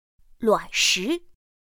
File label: "luǎnshí", pinyin; pinyin2; pinyinji